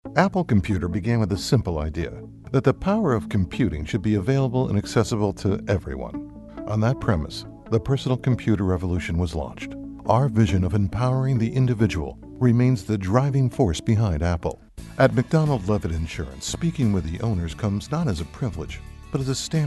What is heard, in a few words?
corporate